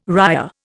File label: "ria", Indian